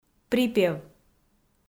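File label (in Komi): "припев" Komi, female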